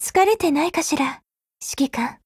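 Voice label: Japanese; Groza; Dreamscape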